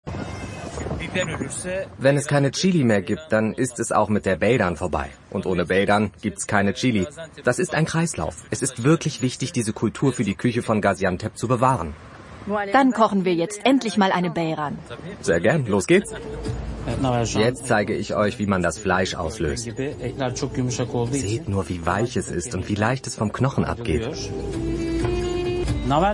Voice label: Voiceover, German; Küchen der Welt, Türkei: Beyran-Suppe; Chefkoch Taner Olca; Arte

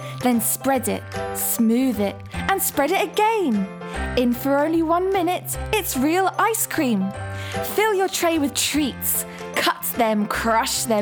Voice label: commercial magic icecream

